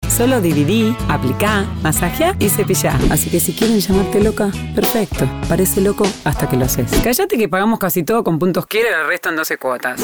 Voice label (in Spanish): Actriz - Rioplatense - con musica